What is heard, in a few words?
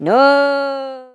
"nooo", Irish; Worms Armageddon